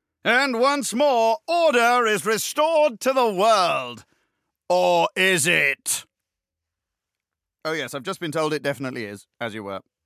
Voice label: narrator; Danger Mouse - Ultimate

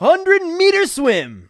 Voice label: announcer swimming; Nick Summer Sports Stars

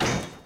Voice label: hurt

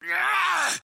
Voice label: hurt

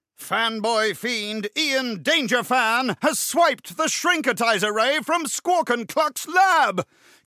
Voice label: narrator; Danger Mouse - Ultimate

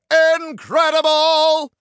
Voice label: narrator ui; Danger Mouse - Ultimate

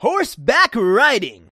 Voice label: announcer riding; Nick Summer Sports Stars

